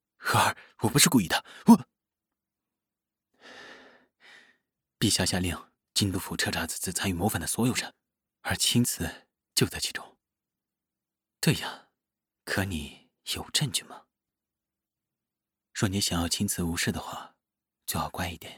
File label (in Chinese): 高冷王爷